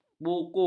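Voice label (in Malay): "buku", Malay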